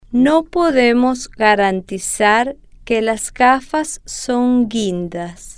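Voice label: no Podemos Garantizar Que Las Gafas Son Guindas, Spanish, female, slow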